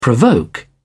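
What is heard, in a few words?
"provoke", British English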